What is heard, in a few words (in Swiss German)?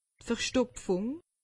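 "verstopfung", Alsatian; medecine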